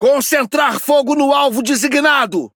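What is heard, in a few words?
priority target, Brazilian Portuguese; World Of Warships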